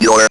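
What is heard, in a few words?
ROBVOX, robot voice; E-Lab; X Static Goldmine 1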